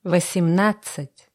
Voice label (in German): russische zahl 20; russische zahl 18; Zahlen auf Russisch